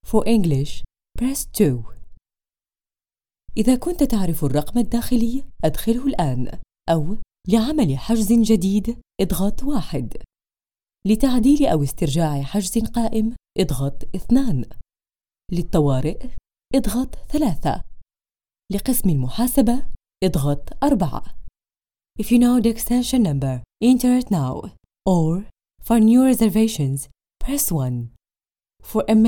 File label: travel IVR; تعليق صوتي للبدالة IVR; Voytra